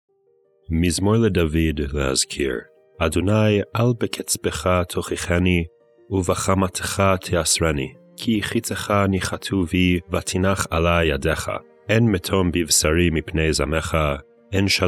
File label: psalm reading hebrew; Psalm 38; recitation; Poetry & Prayer: A Daily Journey Through the Psalms